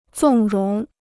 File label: "纵容", Chinese